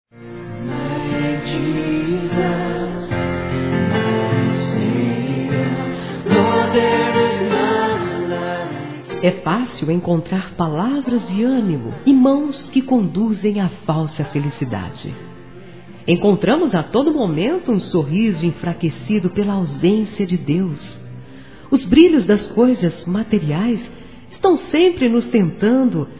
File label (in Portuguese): Evangélico Amizade FEMININO; Aniversários